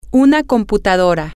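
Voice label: a Computer, Spanish, female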